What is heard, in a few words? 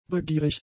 "begierig", German; adjectives